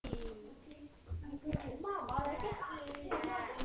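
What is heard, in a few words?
"beam", spoken word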